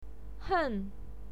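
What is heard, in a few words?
"hen", Mandarin Chinese pinyin, fourth tone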